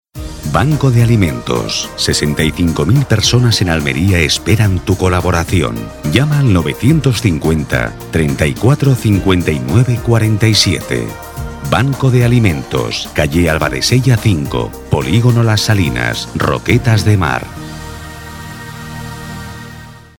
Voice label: spanish movie trailers

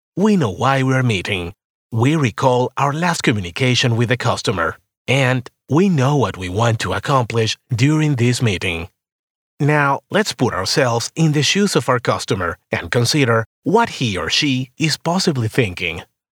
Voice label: english audiobooks

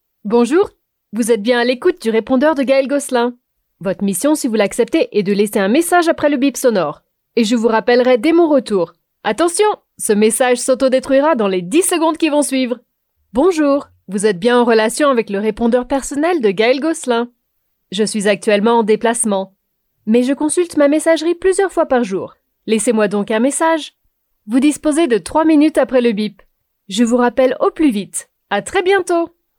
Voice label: french ivr

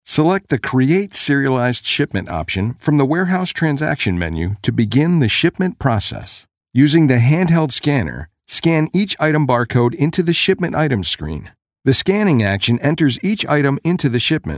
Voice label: english american e-learning

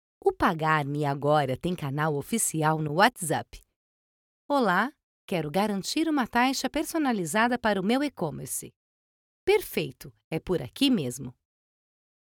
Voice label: portuguese brazilian promos

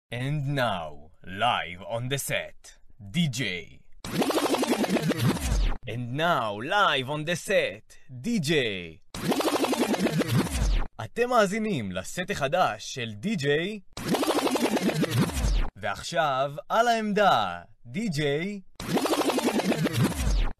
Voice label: hebrew

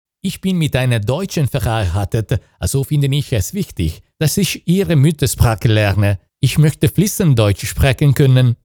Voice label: german commercial

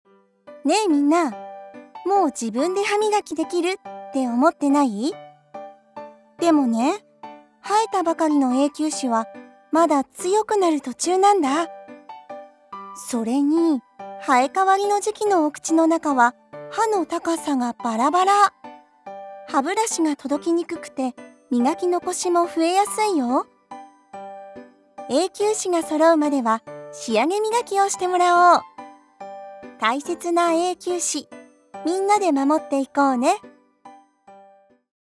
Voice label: japanese animation

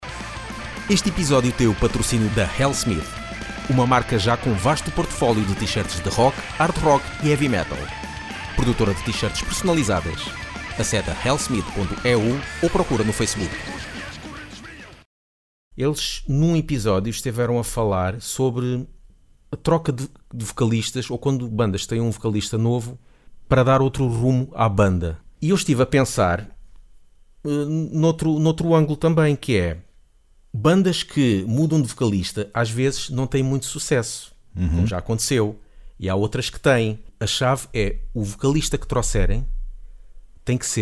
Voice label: portuguese podcasts

